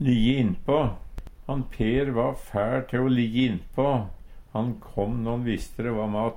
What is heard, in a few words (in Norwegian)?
lijje innpa, Numedalsmål; Uttrykk